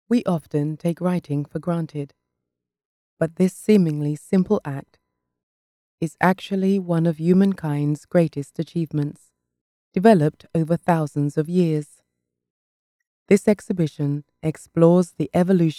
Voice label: Narration